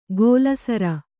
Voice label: "ಗೋಲಸರ", Kannada; gōla sara; noun